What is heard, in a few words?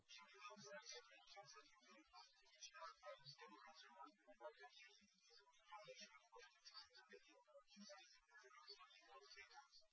male liverec 130ms 5cm; SiSEC08; SiSEC underdetermined